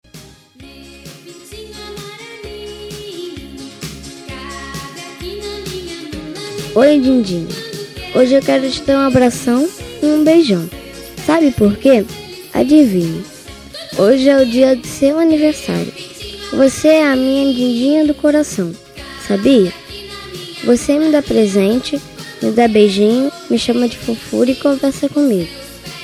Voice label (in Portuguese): infantil madrinha masc, Voz Masculina; Aniversário Voz Infantil – Madrinha – Voz Masculina